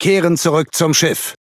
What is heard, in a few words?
Speech Pilots Bomber Land, DE; World Of Warships